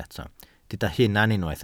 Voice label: "hunan", Welsh